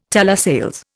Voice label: "telesales", Indian

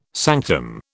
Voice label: "sanctum", British

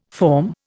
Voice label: "forme", Indian

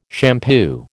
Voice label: "shampoo", American

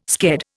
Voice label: "sked", Indian

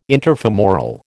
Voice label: "interfemoral", American

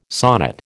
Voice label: "sonnet", American

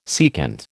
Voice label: "secant", American

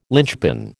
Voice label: "lynchpin", American

